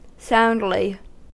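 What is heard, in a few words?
"soundly", American English; pronunciations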